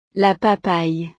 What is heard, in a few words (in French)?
"la papaye", frances; frutas